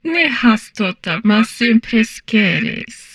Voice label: humanoid portrait female; Stellaris